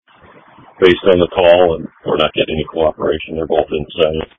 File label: Police Dispatch